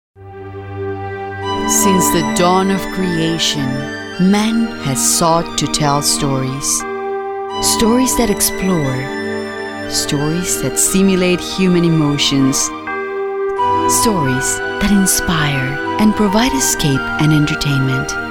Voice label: Narrative English